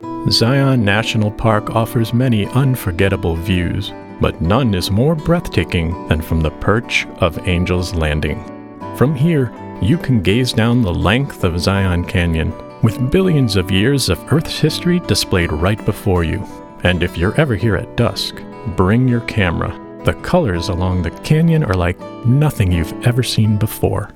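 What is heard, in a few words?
Narration reverent awe inspired visual, male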